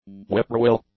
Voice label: "Whippoorwill", English; nouns